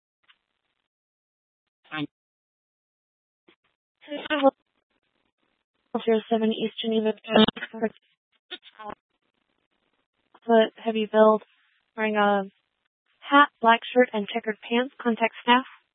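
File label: Tempe police department, scanner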